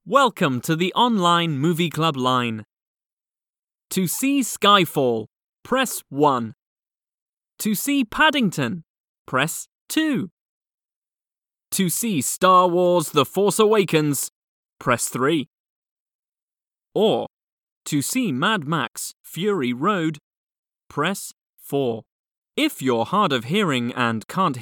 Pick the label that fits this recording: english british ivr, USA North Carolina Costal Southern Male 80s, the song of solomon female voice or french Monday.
english british ivr